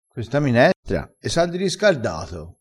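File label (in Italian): "riscarda", dialettale, crespinese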